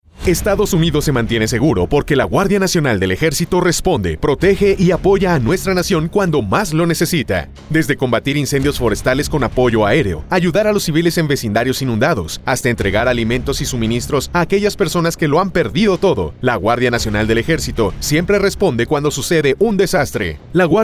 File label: SPANISH